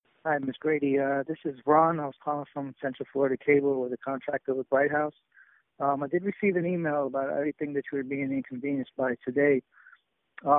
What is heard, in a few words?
Voicemail